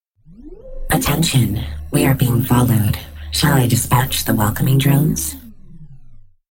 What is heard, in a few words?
dispatching welcoming drones female spark personality